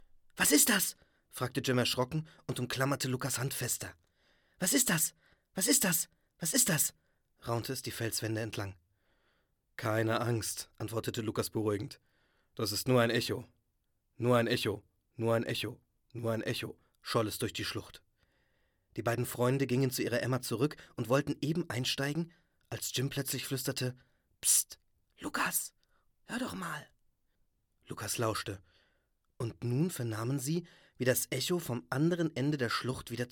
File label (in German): Hoerbuch; Jim Knopf